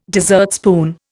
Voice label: "dessertspoon", Indian